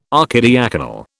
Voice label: "archidiaconal", British

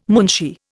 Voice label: "munshi", Indian

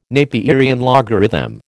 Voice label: "Napierian logarithm", American

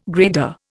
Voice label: "grader", Indian

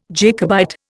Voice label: "Jacobite", Indian

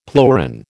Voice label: "pleuron", American

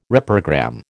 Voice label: "reprogram", American

